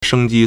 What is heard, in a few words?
"sheng1ji1", Chinese